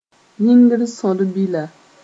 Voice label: "yĩngr-sõd-bila", Mooré